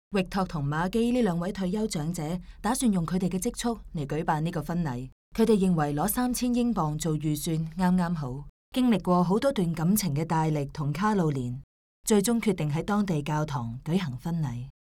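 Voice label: chinese cantonese female e-learning; voice demos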